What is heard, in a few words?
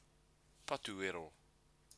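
"patuero", Māori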